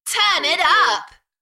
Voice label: UK female, Turn it up, Enthusiastic; beanstalk audio